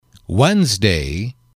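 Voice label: "wednesday", English, male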